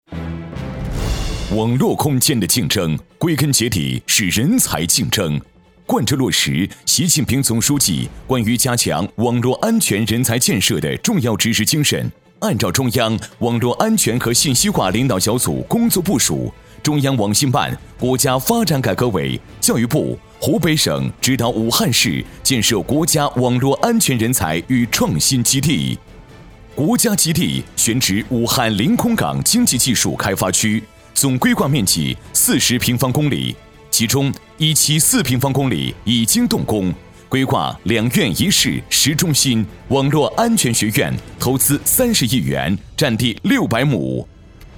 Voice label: 男 激情浑厚 武汉网安

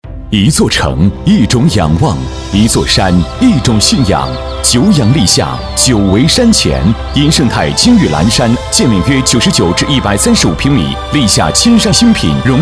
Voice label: 男 房产（大气）; 金域蓝山房产

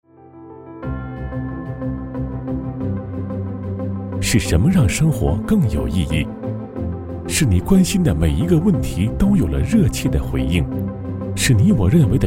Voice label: 男 浑厚轻松亲和; 京东客户服务中心

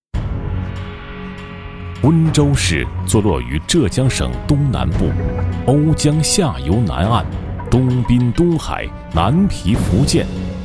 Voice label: 男 温州（稳重）

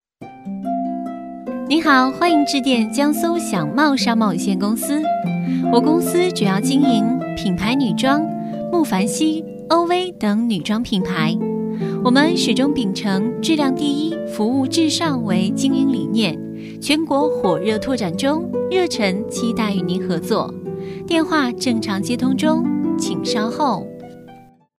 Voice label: 女 甜美舒缓; 江苏享茂

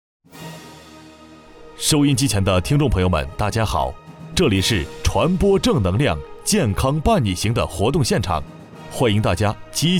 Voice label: 男; 医疗公益发放

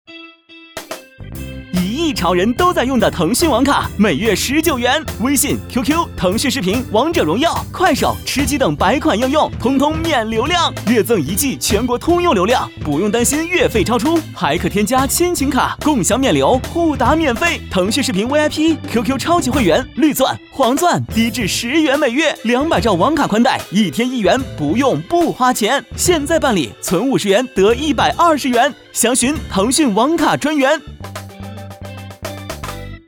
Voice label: 男; 腾讯王卡; 飞碟说

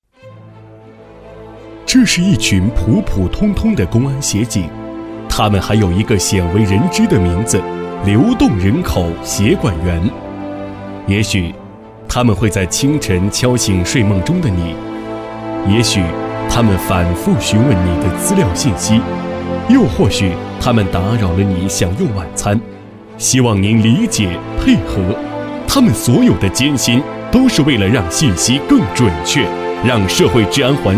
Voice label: 男 协警（感情）

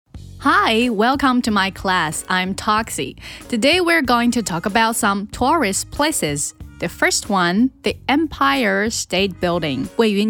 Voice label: 女 英-中-外教 主持 教英语 双语课程

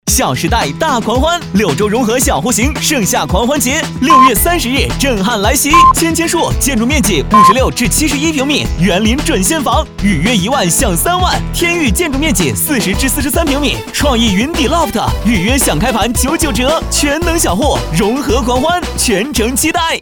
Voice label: 男 房产 (年轻欢快); 飞碟说

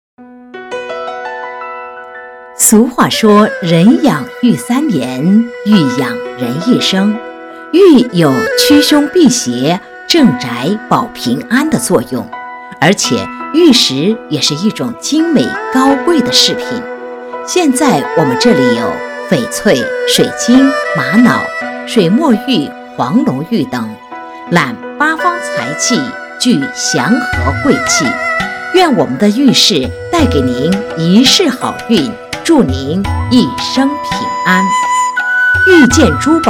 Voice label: 女 玉石

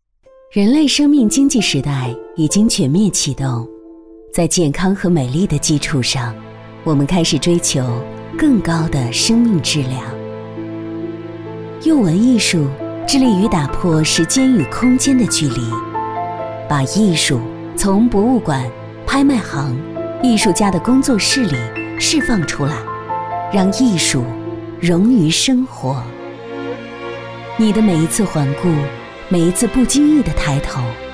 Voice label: 女 专题（优雅神秘）

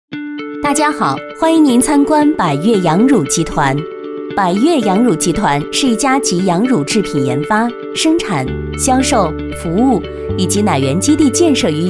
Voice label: 女 产品解说（知性）; 羊乳集团产品解说

